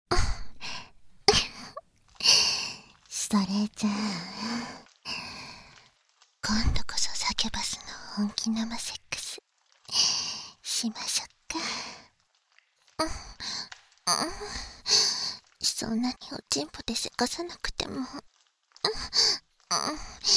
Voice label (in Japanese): 耳舐めセックス（囁き重視編集 効果音あり）, ASMR